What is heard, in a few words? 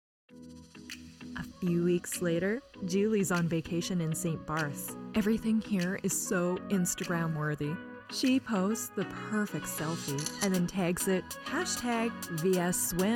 Conversational VO